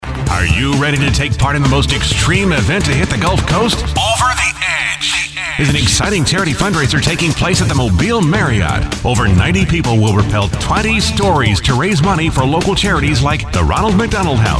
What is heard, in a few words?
radio spot